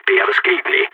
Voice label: lost enemy, balkan; vo